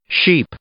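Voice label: "sheep", English, male; noun; i-ɪ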